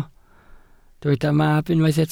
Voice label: "mab", Welsh